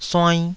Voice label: "suainn2", taiwanese Syllables